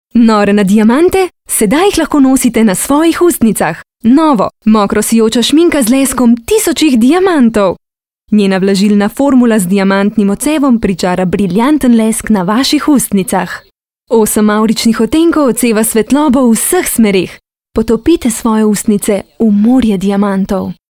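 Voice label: slovenian female commercial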